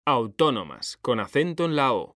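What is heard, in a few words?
"autónomas", Spanish, male; mekanta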